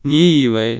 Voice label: synthesized; fast speech2; AISHELL3